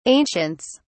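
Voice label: "ancients", English, British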